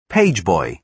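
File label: "pageboy", British English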